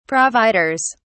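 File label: "providers", British English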